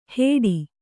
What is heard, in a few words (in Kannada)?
"ಹೇಡಿ", Kannada